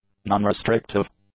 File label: "nonrestrictive", english; adjectives